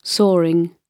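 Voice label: "soaring", British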